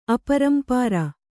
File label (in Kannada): "ಅಪರಂಪಾರ", kannada